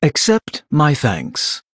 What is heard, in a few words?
Male Blood Elf Thanks; en